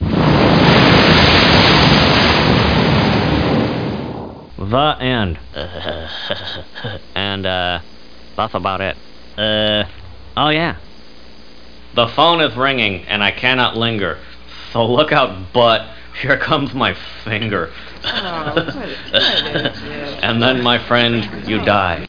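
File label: "out", English; butthead; boss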